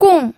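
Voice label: "gong", Mandarin Chinese, fourth tone; pinyin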